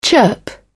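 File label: "chirp", English, British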